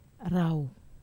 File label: "rau", Wamesa